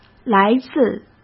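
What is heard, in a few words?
"来自", Mandarin Chinese; hsk; chinese-flashcards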